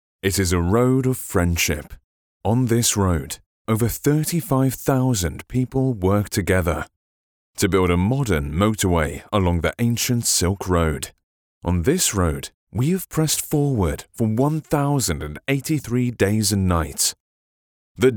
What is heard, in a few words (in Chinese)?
项目解说词 中英文翻译; 中建PKM